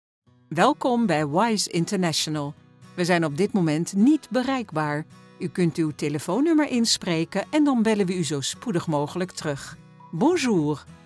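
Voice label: dutch interactive voice response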